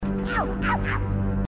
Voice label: oww oww, grunts dialogue